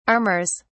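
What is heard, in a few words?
"armours", British English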